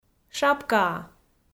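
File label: "шапкаа", Komi, female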